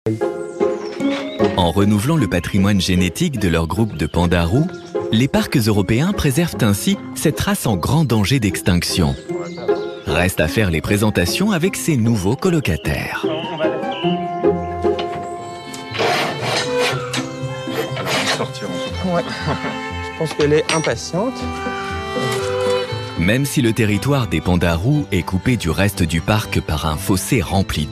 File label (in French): voix off documentaire, homme; LES PANDAS ROUX